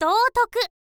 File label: "doutoku", girl; voice